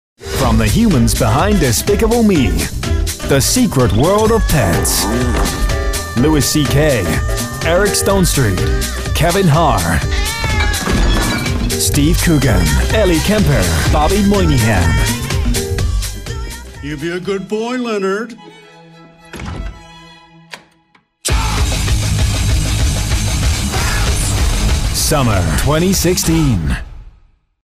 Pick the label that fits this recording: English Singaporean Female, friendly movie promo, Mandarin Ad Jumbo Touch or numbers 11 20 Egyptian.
friendly movie promo